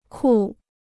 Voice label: "酷", Chinese